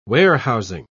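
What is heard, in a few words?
"warehousing", English, British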